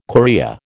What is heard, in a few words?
"chorea", American